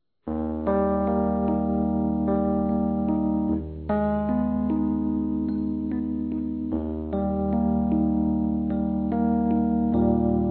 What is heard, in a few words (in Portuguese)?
Natal Romantico Masculino; Telemensagens; Natal e Ano novo